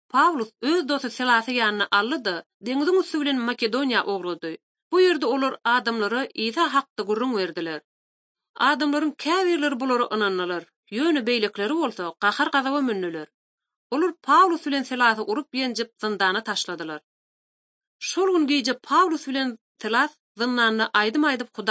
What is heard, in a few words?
turkmen, bible translation drama; acts of the holy spirit; institute for bible translation